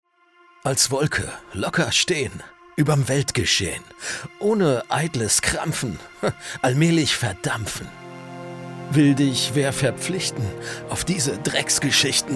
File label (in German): Ad seitsum - Gedicht anders